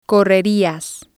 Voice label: "correrias", Spanish

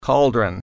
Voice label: "cauldron", English

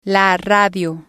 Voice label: "la radio", spanish

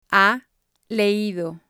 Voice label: "ha leido", spanish

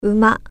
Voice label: "horse", Japanese

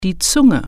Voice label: "tongue", German

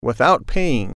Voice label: "without paying", English

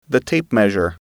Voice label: "tape measure", English

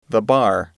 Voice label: "bar", english

